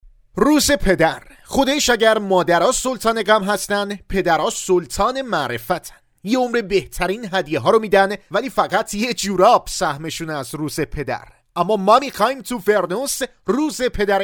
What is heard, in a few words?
narrator